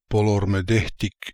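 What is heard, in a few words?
"Polormedekhtic", pronunciations; Fourth Way; Beelzebub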